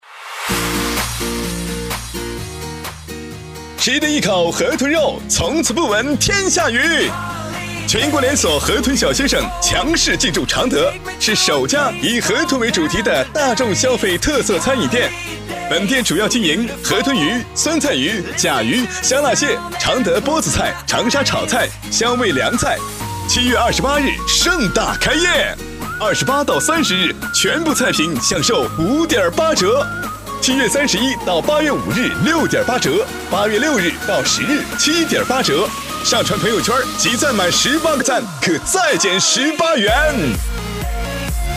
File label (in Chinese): 促销广告